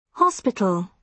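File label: "hospital", British English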